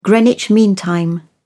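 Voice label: "greenwich mean time", British English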